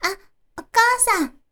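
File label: "okasan", Japanese, girl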